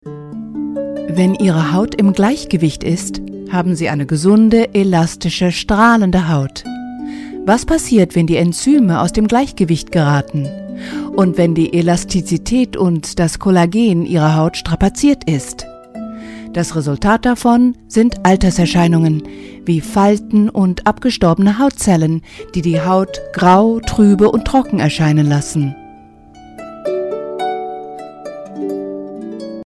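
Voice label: german female commercial; voice demos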